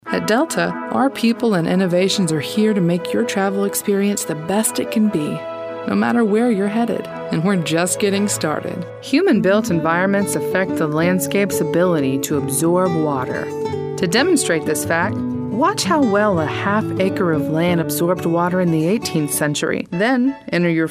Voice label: Narr